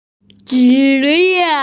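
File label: "zhi ye", Mandarin Chinese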